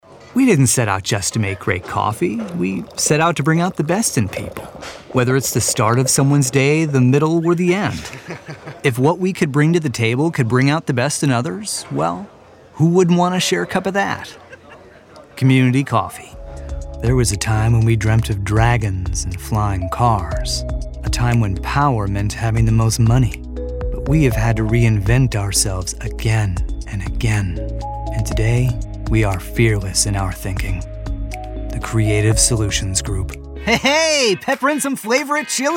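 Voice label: commercial, Voiceover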